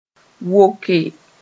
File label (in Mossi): "woke", moore